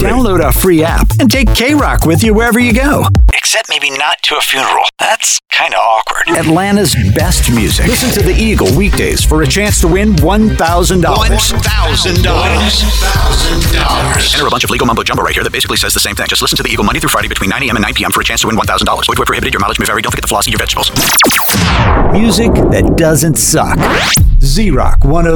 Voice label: english american others